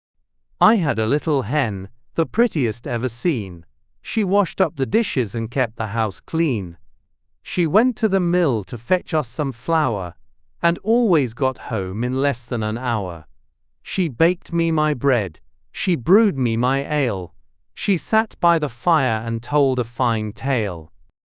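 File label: male British, classic rhymes; I Had a Little Hen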